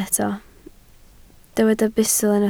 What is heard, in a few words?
"bustl", Welsh